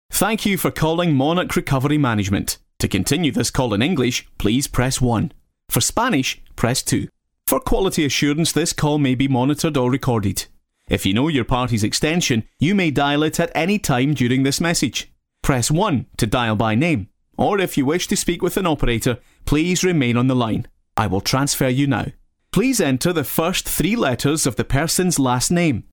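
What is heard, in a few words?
English Scottish male, scottish voice over talent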